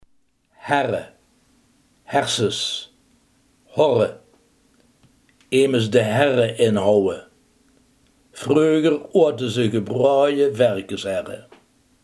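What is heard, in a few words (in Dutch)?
hersenen, Limburgse gesproken woordenboeken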